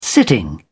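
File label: "sitting", British English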